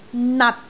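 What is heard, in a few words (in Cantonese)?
"nat6", Cantonese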